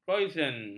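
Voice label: "kroisan", Malay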